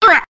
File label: "reh", cartoon; ooh ahh